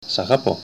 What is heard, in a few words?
i love you, greek